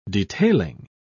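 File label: "detailing", British English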